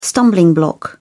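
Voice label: "stumbling block", English, uk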